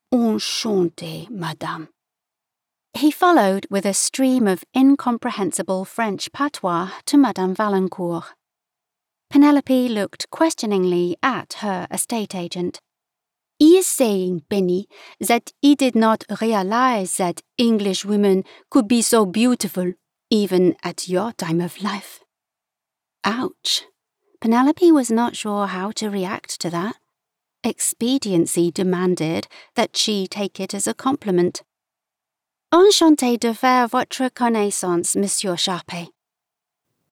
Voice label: Commercial Fiction